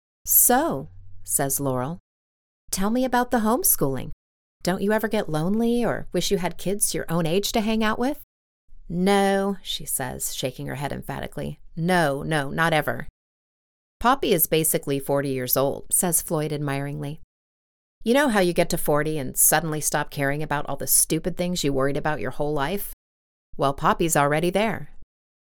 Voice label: NARR

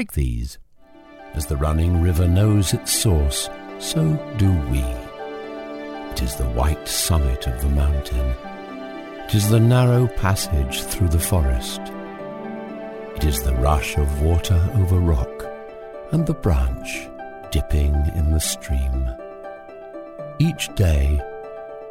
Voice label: Warm

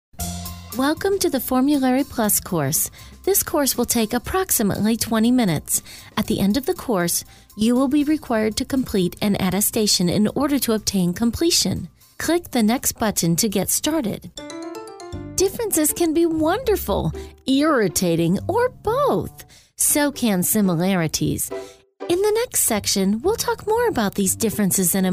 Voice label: Explainer video

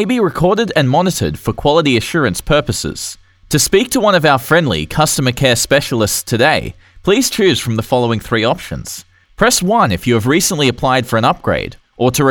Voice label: Energy Company Phone System

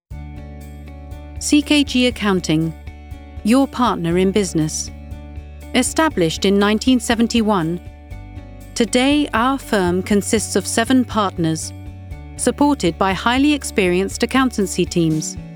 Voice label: explainer

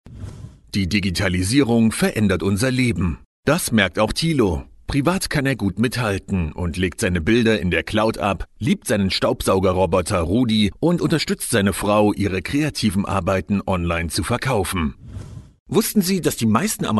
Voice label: German voice over artist

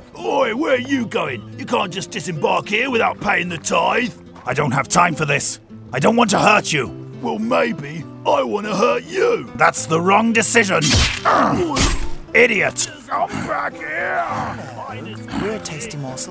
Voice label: Gaming Gruff; Gaming Reel

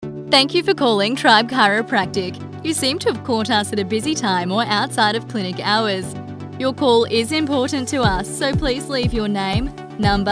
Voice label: Telephone Voicemail Chiropractor

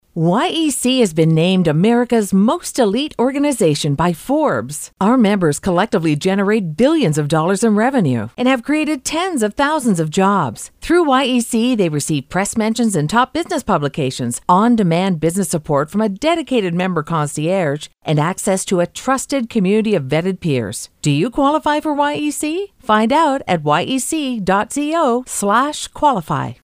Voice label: Podcast Audio

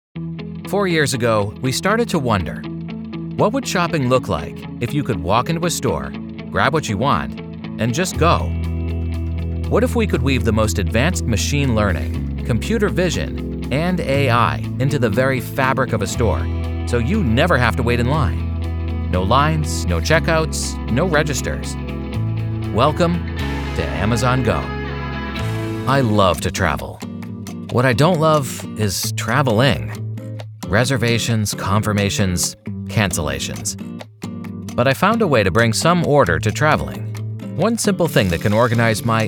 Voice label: Explainer

